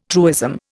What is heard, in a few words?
"truism", Indian